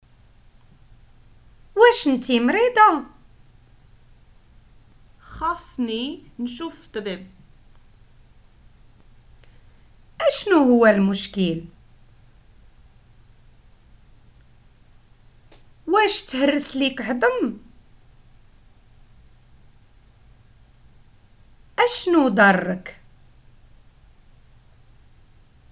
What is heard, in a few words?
prompts, Moroccan Arabic